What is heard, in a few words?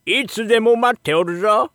shop oldman, voice